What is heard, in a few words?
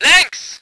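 voice german vehicle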